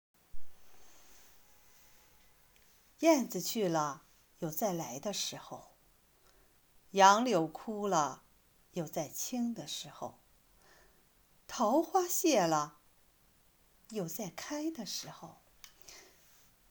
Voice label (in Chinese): 抒情散文